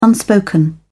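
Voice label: "unspoken", British English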